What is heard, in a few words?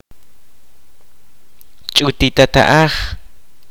"father's elder brother", kaqchikel